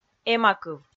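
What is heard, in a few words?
"эмакыв", Komi, female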